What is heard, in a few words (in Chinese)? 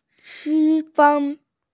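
"qu1fen1", Mandarin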